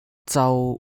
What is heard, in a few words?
"zau1" Cantonese